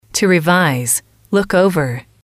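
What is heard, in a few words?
"to revise look over", English, female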